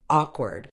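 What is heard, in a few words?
"awkward", British English; ˈɔː.kwəd; OR-kwuhd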